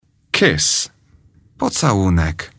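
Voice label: "kiss", English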